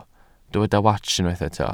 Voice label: "wats", Welsh

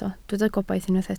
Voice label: "gobaith", Welsh

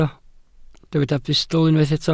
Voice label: "bustl", welsh

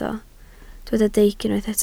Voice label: "deic", Welsh

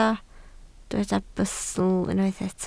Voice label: "bustl", Welsh

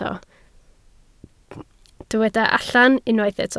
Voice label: "allan", Welsh

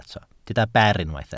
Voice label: "ber", Welsh

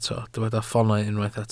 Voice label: "ffoonau", Welsh

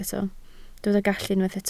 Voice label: "gallu", Welsh

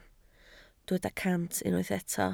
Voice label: "cant", Welsh

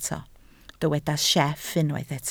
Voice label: "sieff", Welsh

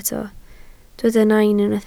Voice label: "nain", Welsh

